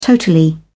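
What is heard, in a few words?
"totally", English, British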